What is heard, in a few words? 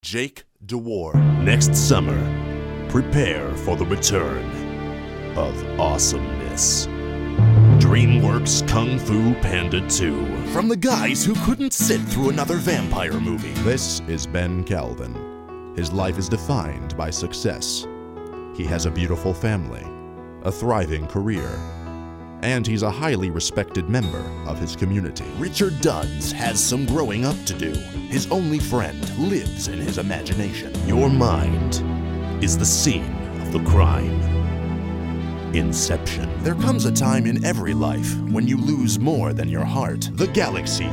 trailer